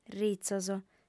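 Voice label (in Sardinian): "ritzos", female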